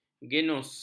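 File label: "genus", Malay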